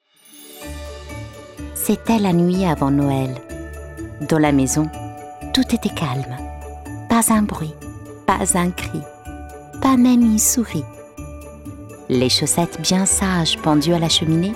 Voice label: french narration